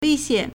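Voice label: "wēixiǎn", Chinese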